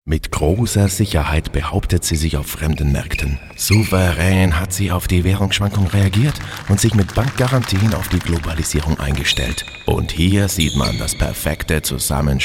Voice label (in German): SPRECHER WERBUNG; Sparkasse Goldkurs